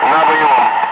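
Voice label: Russian speech, Ka-50 Wingman; DCS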